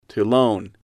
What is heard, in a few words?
"to loan", English